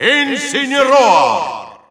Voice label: Russian Announcer; Incineroar; SSBU